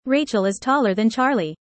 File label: user fast; how tall